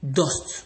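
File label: "dust", Nigerian Pidgin, male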